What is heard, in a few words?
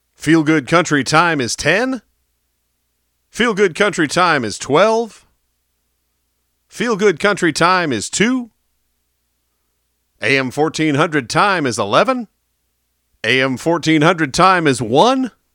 Chicken time hours Stereo; KCFL